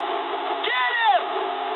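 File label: English attack position mood med; War Thunder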